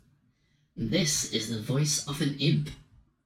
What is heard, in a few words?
imp; Blue Yeti X World of Warcraft Edition